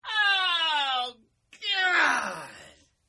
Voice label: Oh Gawd Male; Oh Gawd Male 1; Exclamation Sound Effects